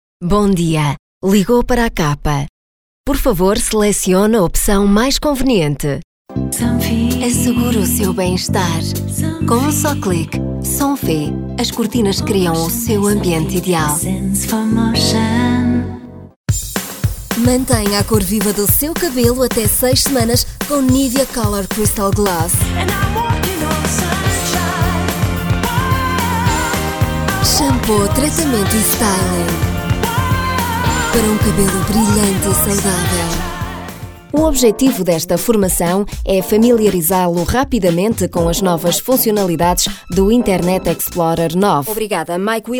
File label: portuguese commercial; Portugal; European Portuguese